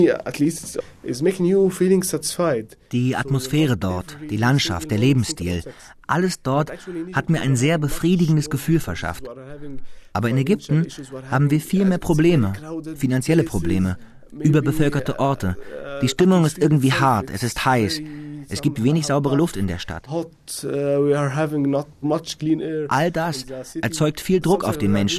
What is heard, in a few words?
Feature Voice Over